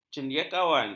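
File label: "cendekiawan", Malay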